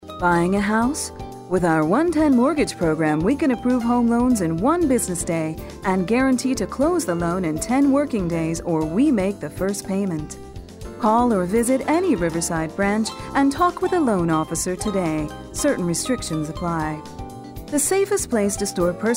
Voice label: Narration